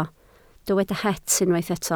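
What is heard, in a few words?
"het" Welsh, spoken word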